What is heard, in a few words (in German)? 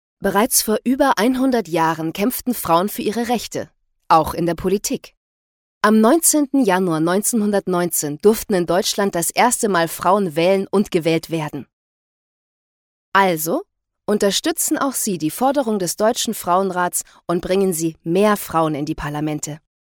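Imagekampagne Erwachsen; Deutscher Frauenrat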